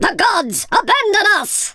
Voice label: boring, speech, Viking